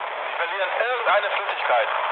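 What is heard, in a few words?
German tank damaged mood low, speech; War Thunder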